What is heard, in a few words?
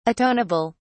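"atoneable", English, uk British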